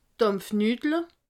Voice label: "dampfnudle", alsace

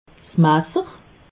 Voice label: "masser", alsace

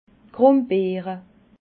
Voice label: "grumbeere", alsace

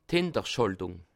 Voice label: "hinterschaltung", alsace

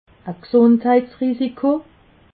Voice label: "gsundheitsrisiko", alsace

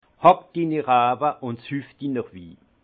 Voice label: "hack", alsace; vin

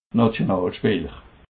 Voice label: "nationalspeeler", alsace

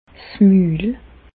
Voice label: "mul", Bas Rhin; medecine; bouche

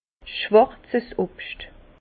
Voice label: "schwarzes", Bas Rhin; fruits noirs; vin

